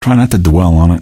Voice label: answer, male; Garry's Mod; hl2